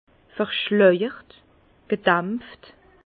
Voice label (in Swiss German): "verschleijert", Bas Rhin; vin; voilé